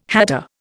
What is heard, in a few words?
"hatter", Indian